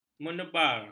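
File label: "menebar", Malay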